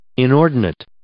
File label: "inordinate", English